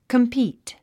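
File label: "compete", English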